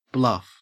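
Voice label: "bluff", English, Australian accent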